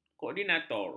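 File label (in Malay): "koordinator", Malay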